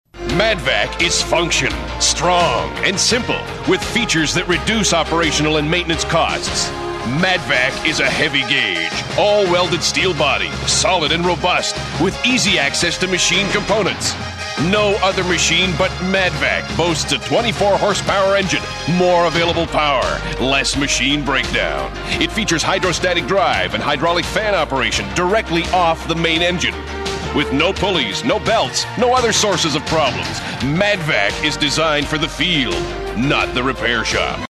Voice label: en hardsell

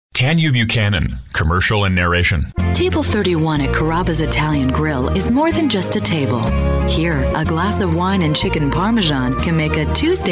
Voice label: radio

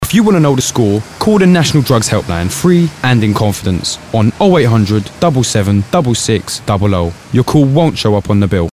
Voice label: National Drugs Helpline Commercial

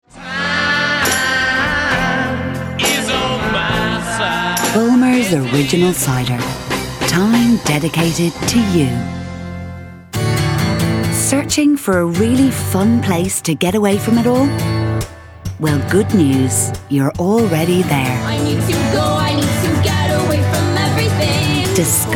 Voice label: Ad

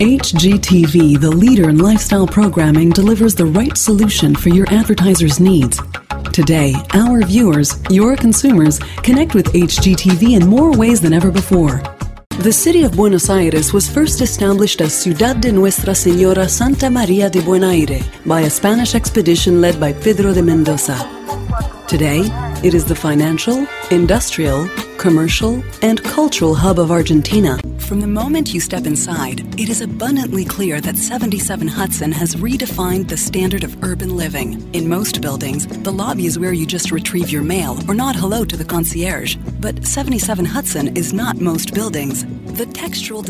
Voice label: Narration Corpo

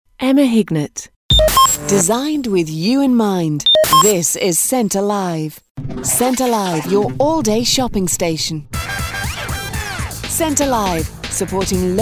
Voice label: imaging

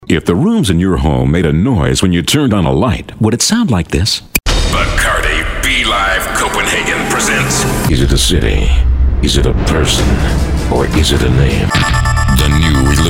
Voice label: spots

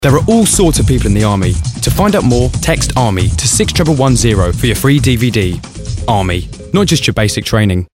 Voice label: Army Commercial

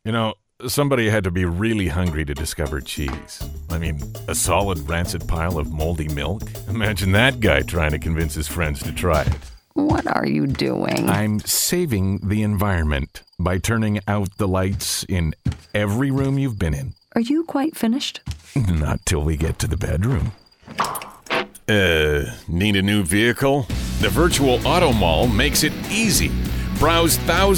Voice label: commercial

